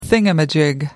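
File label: "thingamajig", British English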